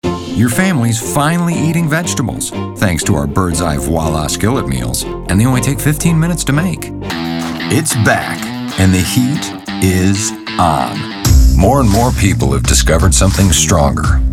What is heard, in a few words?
Commercial